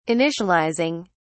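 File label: "initializing", British English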